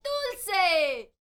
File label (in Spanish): "DULCE", MEXICAN, World Voices; ILIO; Vocal Planet VOL-5